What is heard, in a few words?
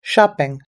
"shopping", US English